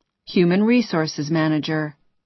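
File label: "human resources", American English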